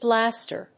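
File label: "Blaster", English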